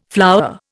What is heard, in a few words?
"flour", Indian